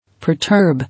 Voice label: "Perturb", English